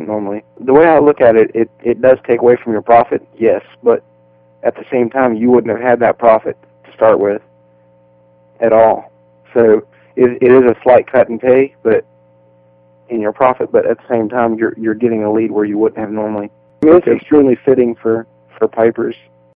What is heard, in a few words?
vendor testimonial bagpiper